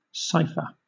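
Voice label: "cipher", English, British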